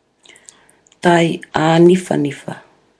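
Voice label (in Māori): "tai aniwhaniwha", Māori word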